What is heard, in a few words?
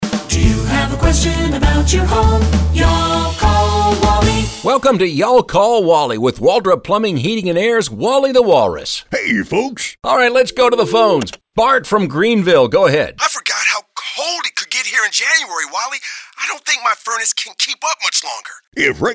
radio ads; Bad Furnace News